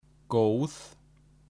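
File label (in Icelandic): "god", Icelandic; matarhefti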